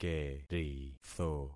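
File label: "keritho", concatenated syllables; Affixing experiment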